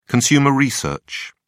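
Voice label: "consumer research", British English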